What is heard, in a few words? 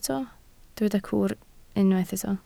"cwr", Welsh